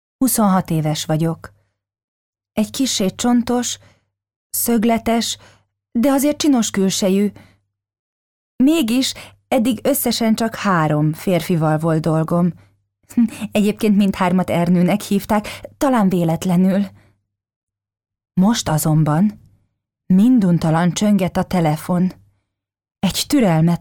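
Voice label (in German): Hungarian Dynamisch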